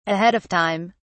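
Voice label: "ahead of time", British English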